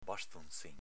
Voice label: "bastonzin", Veneto

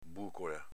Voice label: "bucola", Veneto

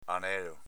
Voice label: "anelo", Veneto; anèlo s.m. anello

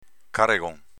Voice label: "caregon", Veneto; s.m. seggiolone

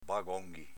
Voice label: "bagonghi", Veneto

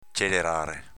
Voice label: "celeràre", Veneto; v. accelerare

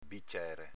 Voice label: "bicere", Veneto

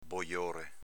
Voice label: "bojore", Veneto

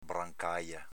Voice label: "brancaja", Veneto

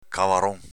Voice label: "cavarón", Veneto

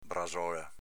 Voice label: "brasola", Veneto

